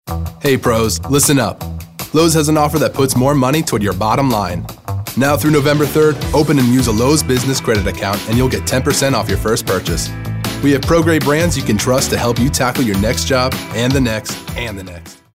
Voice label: guy next door